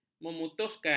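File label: "memutuskan", Malay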